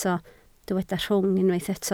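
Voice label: "rhwng" Welsh, spoken word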